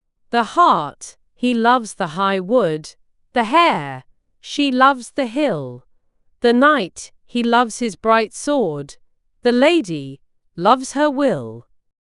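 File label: female British, classic rhymes; The Hart He Loves the High Wood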